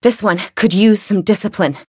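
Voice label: Voice encounter fear; sherinu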